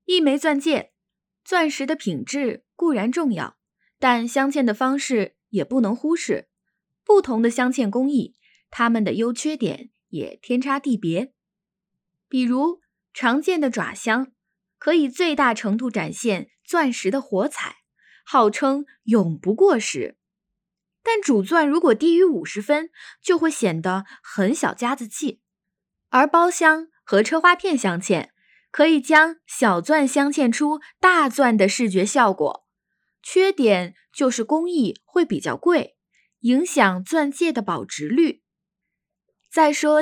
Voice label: 女单播

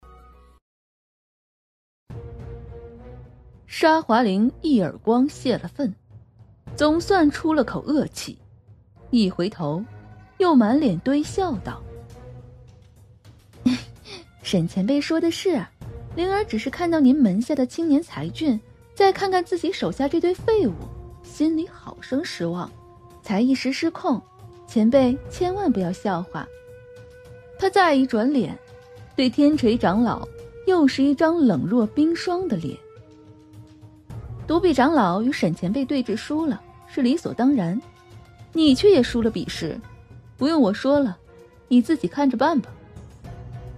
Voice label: 有声小说

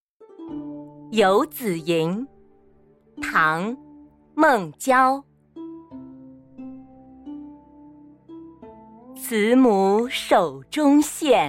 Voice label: 古诗词朗诵

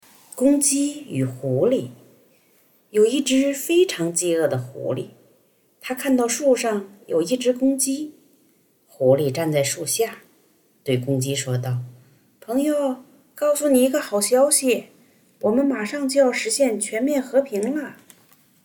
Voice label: 儿童故事; 公鸡与狐狸

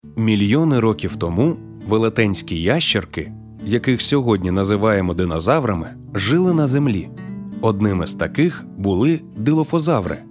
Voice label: 乌克兰语男轻松自然广告

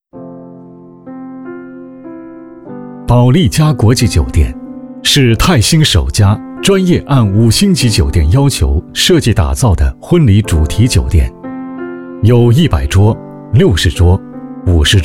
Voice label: 门店大厅广播沉稳亲切

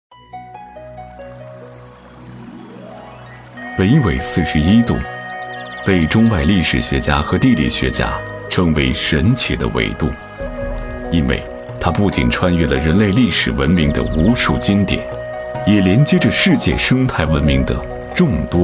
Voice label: 宣传片; 辽河大米

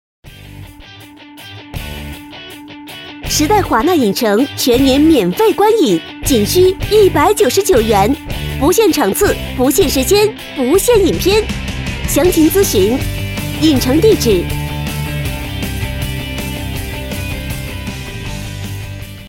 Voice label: 活力动感; 时代华纳影城

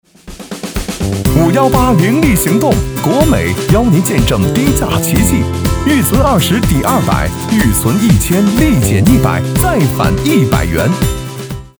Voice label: 年轻促销; 国美

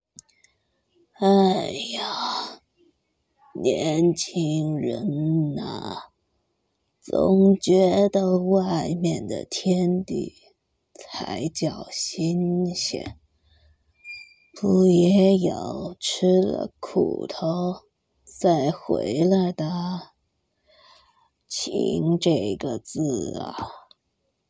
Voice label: 老年; 配音圈素材老年

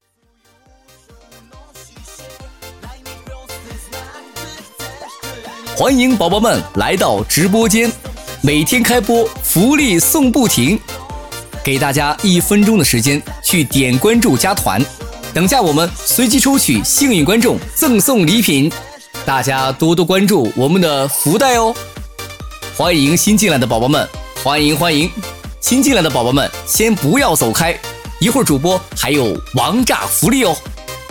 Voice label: 抖音直播直播带货

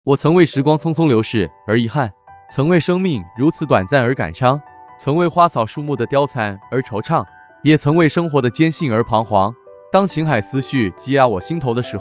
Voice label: 阳光男声

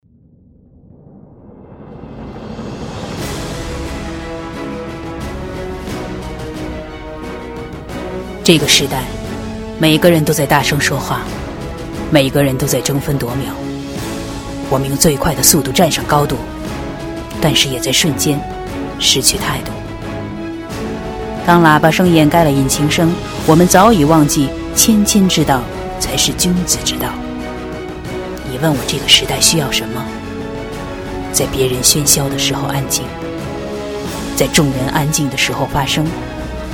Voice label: 广告高亢版

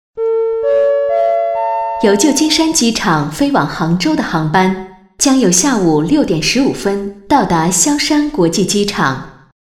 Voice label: 机场播报

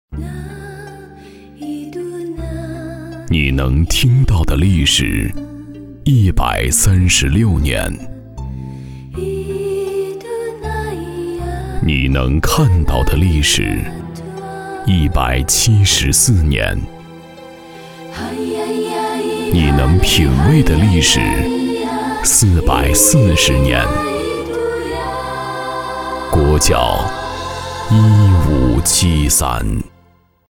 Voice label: 低沉; 国窖

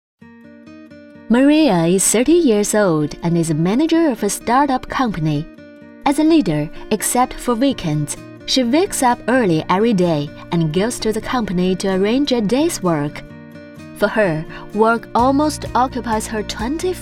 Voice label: 英文自然讲述线上办公故事

